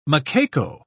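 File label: "macaco", English, British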